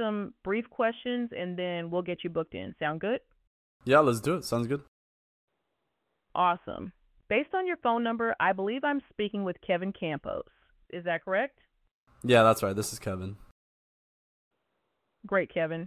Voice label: Healthcare Receptionist Call